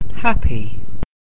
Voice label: "happy", English